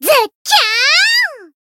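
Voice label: Voice Japanese; thillelille; finish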